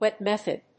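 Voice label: "WET METHOD", English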